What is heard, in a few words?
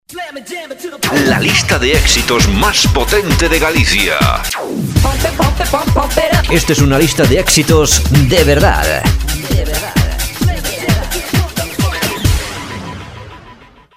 jingle